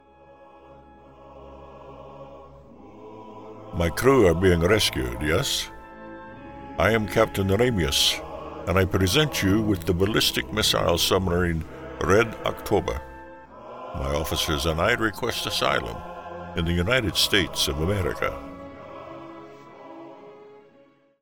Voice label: Sean Connery style